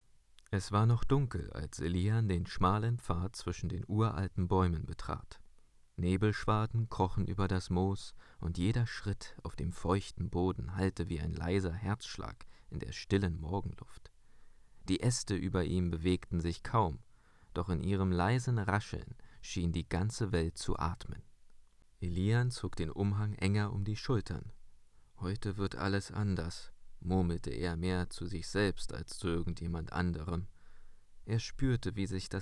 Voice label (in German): Hoerbuch